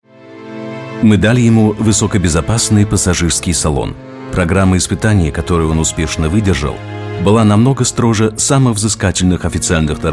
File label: Russian voice over emotional introduction